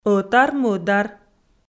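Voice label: "ӧтар-мӧдар" Komi, female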